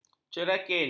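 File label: "cerakin", Malay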